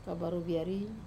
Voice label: "kavaru viarui", Wamesa; long beans